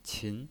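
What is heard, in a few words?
"qin" second tone, pinyin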